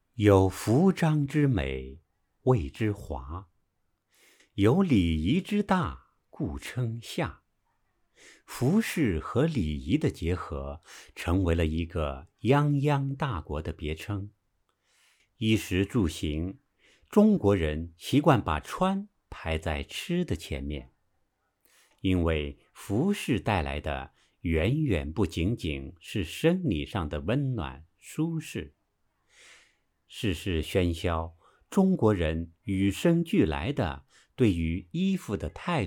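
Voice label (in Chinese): 男纪录片配音磁性沉稳浑厚大气; 穿在身上的中国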